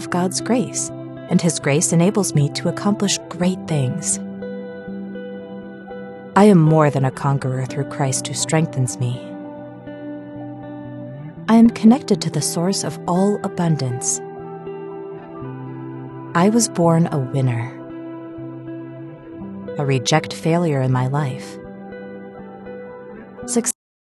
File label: Success Affirmations